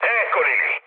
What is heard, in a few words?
enemy detected mood low, speech it; War Thunder